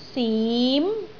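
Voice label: "sim2", Cantonese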